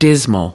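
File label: "dismal", English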